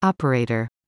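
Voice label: "operator", English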